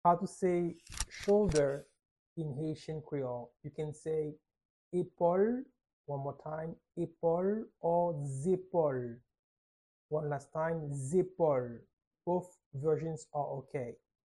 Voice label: "Epol" Haitian Creole pronunciation by a native Haitian Creole teacher; How to say Shoulder in Haitian Creole